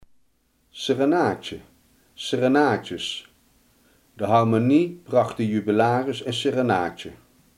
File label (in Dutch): "serenade", Limburgs